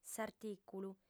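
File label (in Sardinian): "s'artìculu", female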